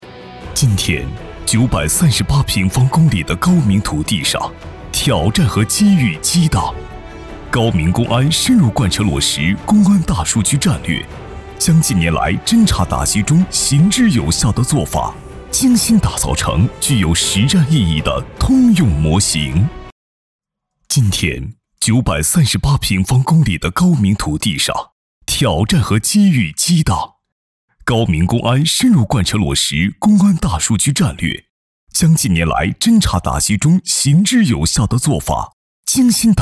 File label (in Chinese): 男,党政专题; 佛山市公安局